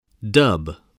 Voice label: "dub", English